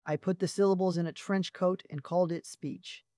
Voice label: Polished and articulate, a dependable voice for structured explanation.; polishedarticulatewarm